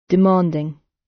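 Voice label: "demanding" British; Business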